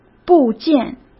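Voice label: "部件", Mandarin Chinese; chinese-flashcards; hsk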